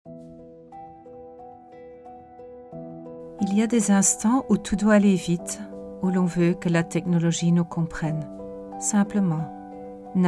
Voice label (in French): Commercial Soft avec son FR